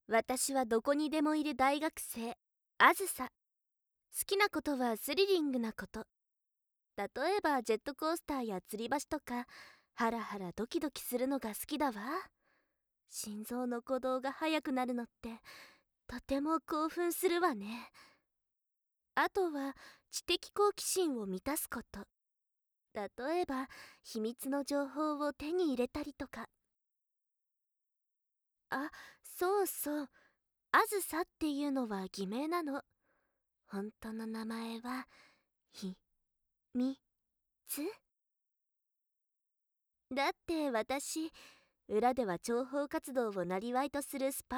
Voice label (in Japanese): 自己紹介＆プロローグ, ASMR